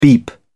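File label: "beep", English, British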